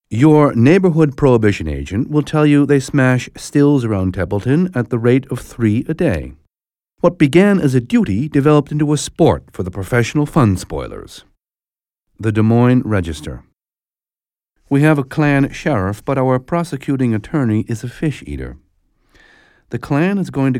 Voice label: dramatic